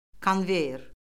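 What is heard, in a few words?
"konveyer", Azerbaijani; Orfoqrafiya Lüğəti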